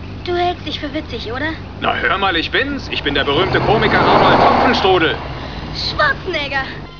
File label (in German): witzig